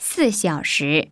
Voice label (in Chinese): chinese 5 hour; samsung YP-U5; Audio User Interface